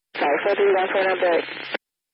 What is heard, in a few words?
amb police chatter; netent